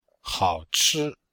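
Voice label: "hao3chi1", Chinese; Cours-de-Chinois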